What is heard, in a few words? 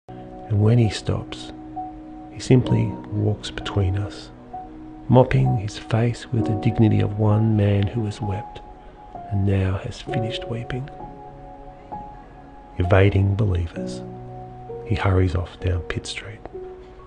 English (AUS), Male